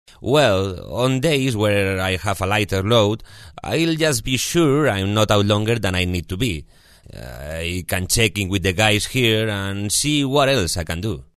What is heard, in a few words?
Spanish english M Explainer; accent of spain